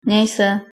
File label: goodbye, czech